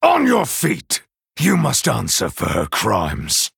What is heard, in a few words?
Male Night Elf; Kurtrus; Cariel